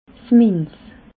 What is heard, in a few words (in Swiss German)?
"minz", alsace